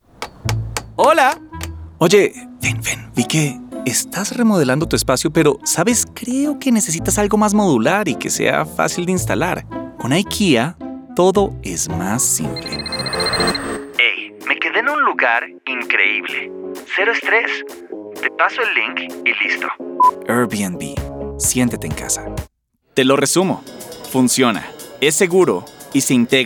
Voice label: spanish latin american commercial; zweisprachiger Synchronsprecher